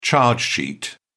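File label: "charge sheet", British English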